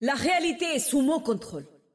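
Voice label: French; 秩序之光（守望先锋）; 终极技能; 法语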